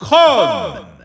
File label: French Announcer; Chrom; SSBU